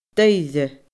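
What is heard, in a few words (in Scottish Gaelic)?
"duibhre", Scottish Gaelic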